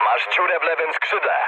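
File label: left wing, mood low, Polish speech; War Thunder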